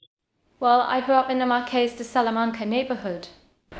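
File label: Voice Acting Spanish Female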